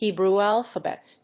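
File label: "Hebrew alphabet", English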